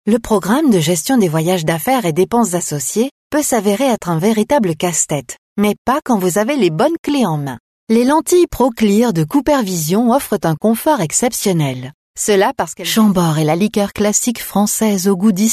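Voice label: French, female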